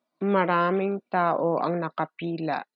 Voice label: Tagalog